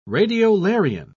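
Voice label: "radiolarian", British English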